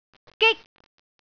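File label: "gik1", Cantonese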